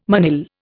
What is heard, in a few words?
"manille", Indian